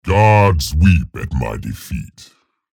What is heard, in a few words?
vo chatter negative; respawn; scalebearer